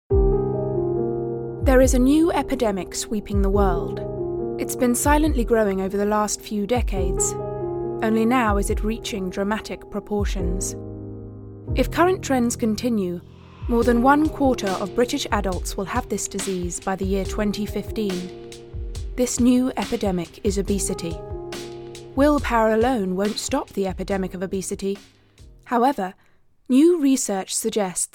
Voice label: Documentary Reel

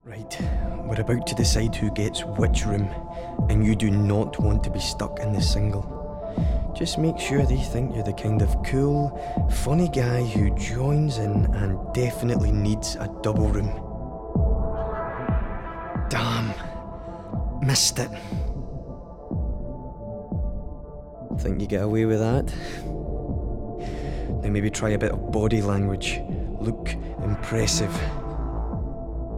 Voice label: Comedy

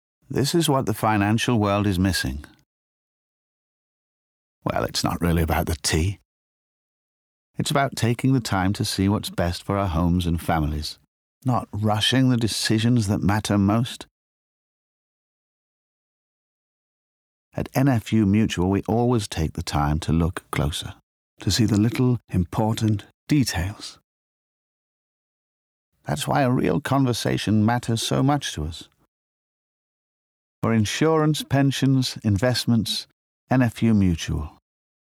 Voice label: Vo Only; NFU; Tea

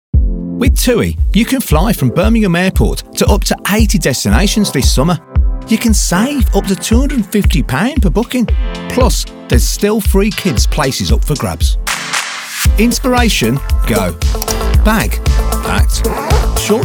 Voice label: Ad; TUI

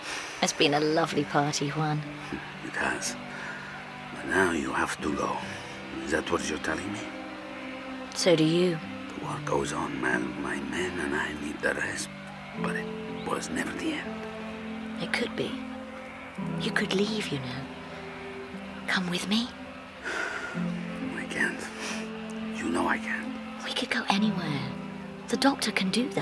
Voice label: Drama Spanish accent; Doctor Who

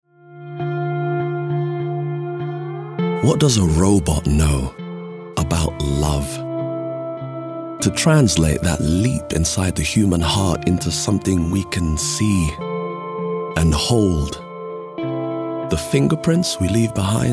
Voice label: Ad; Etsy

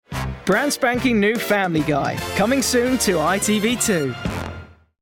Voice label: promo

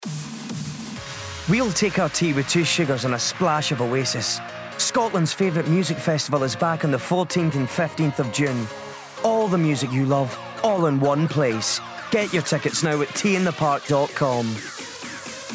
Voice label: VO Reel; T In The Park

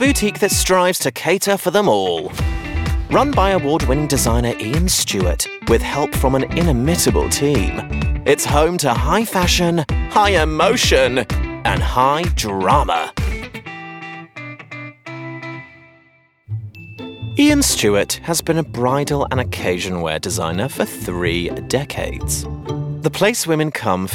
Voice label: doco bride

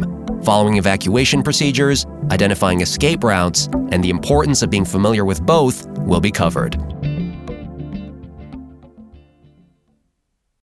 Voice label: explainer fire safety

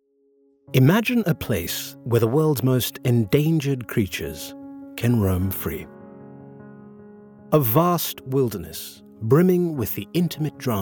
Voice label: Documentary

